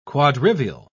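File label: "quadrivial", English, British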